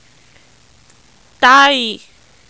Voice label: "tai", Yoruba